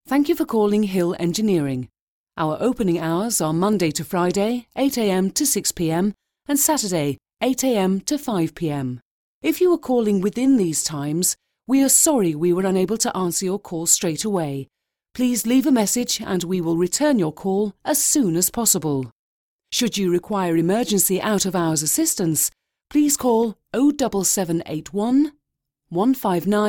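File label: British IVR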